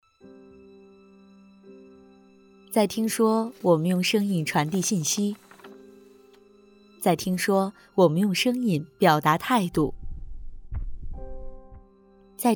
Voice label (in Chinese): 女 讲述 加音乐